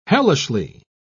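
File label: "hellishly", British English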